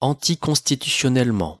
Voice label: "anticonstitutionnellement" pronunciation